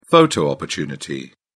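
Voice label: "photo opportunity", British English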